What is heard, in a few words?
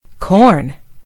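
"corn", British English; gcompris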